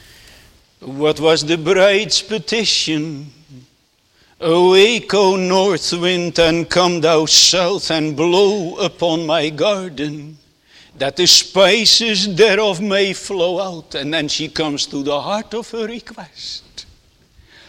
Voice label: Sermon Continued; the answer of the bridegroom